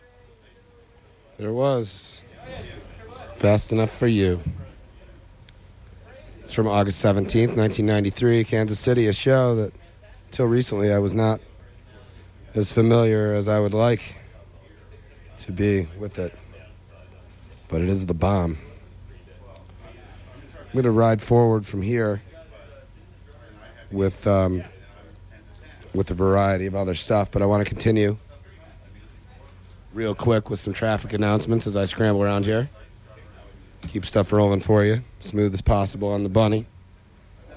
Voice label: radio banter; Coventry